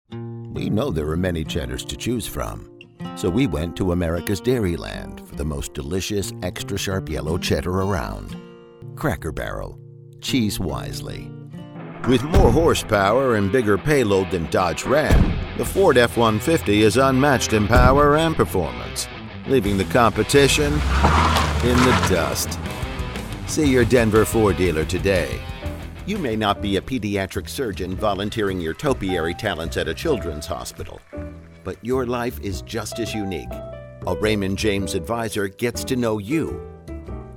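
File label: Commercial, voice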